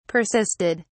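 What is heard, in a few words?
"persisted", British English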